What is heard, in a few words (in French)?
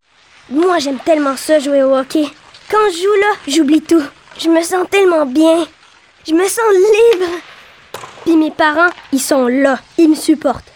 Publicité Fictive